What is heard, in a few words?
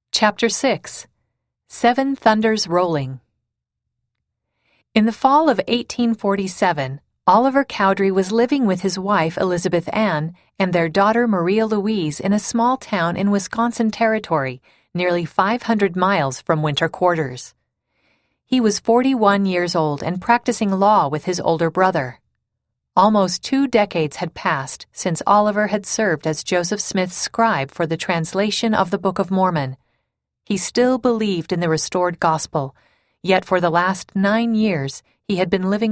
female voice